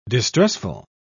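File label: "distressful", British English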